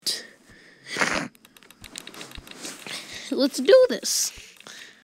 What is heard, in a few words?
lets do this voice cracks